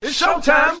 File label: it's showtime male; Voice; introductions